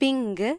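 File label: pink, Serbian; gcompris-data; colors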